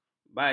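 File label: "badik", Malay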